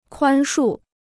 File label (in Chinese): "宽恕", Chinese